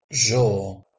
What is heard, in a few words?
"zooo" Greek